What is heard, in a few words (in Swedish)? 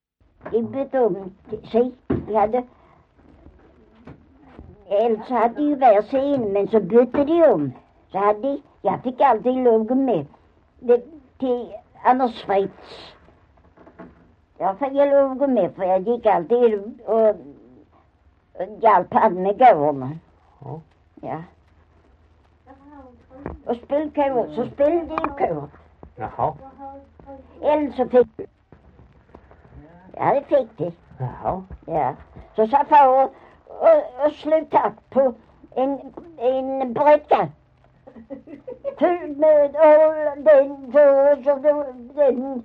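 Maglarp Skåne, dialekter; Folkminnen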